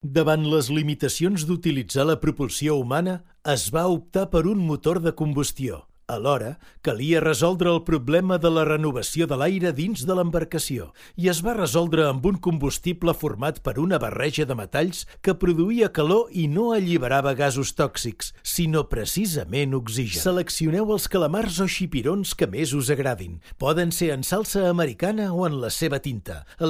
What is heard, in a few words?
Narrator